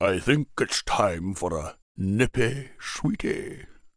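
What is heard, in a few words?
pissed, English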